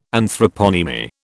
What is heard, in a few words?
"Anthroponymy", British